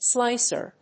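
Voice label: "slicer", English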